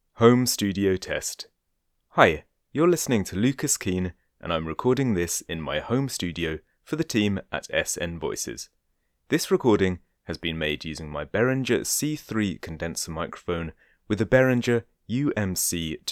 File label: Home Studio Test